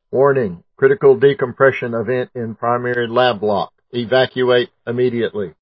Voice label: Computer Voice; 'The Sojourn` Audio Drama'